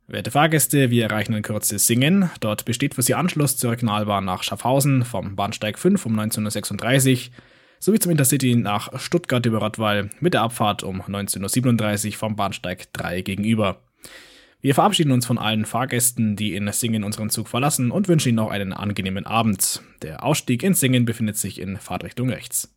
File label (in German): ankunft Singen, ansagen